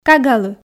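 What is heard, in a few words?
"кагалы", Komi, female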